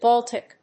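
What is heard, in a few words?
"Baltic", English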